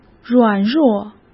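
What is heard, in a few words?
"软弱", Mandarin Chinese; chinese flashcards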